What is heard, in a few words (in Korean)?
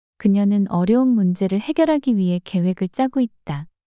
그녀는 어려운 문제를 해결하기 위해 계획을 짜고 있다 문장 여자